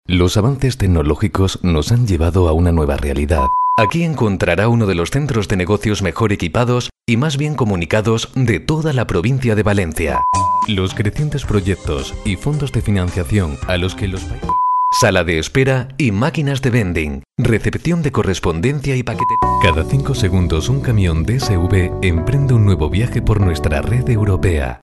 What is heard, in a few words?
corporate